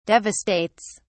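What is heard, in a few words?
"devastates", British English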